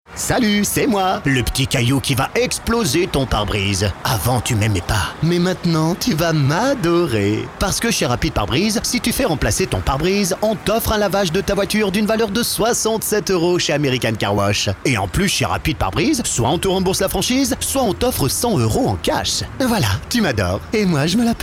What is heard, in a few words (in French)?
Pub radio Pare brise; Rapid